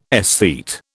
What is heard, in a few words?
"aesthete", British